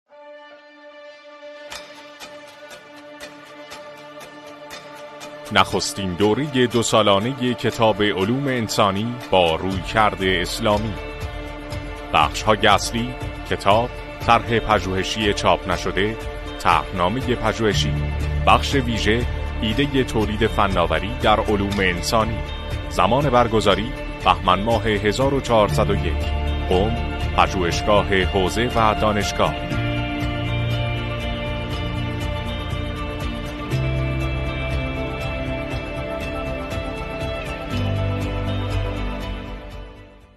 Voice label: narrator